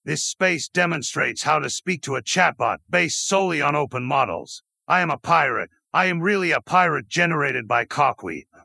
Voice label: pirate; coqui